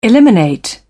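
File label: "eliminate", British English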